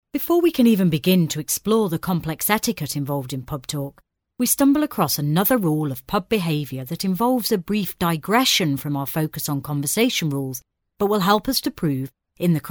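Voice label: English UK, female, elearning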